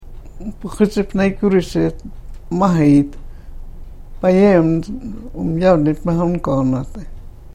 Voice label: karuk

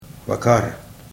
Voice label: "vakaar", Karuk

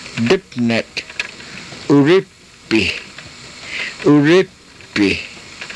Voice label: "uripih", karuk

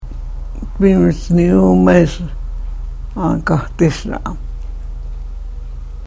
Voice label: karuk

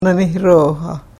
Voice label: "nanihrooha", karuk

